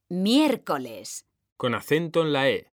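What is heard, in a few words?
"miércoles", Spanish; mekanta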